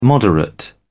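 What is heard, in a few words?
"moderate", British English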